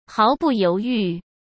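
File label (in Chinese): "毫不犹豫", Mandarin Chinese; hsk; chinese-flashcards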